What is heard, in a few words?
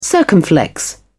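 "circumflex", British English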